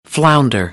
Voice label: "flounder", American; English; ɚ - əɹ